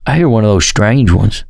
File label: heard special, survivor voice